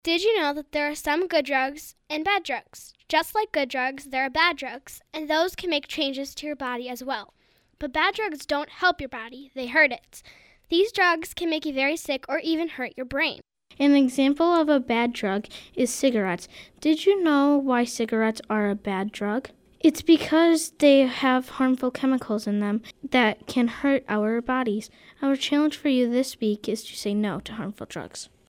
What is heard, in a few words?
Announcement